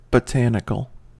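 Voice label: "botanical", US English; pronunciations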